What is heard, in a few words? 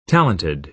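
"talented", American English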